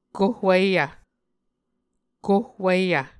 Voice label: "kohwiyv", muscogee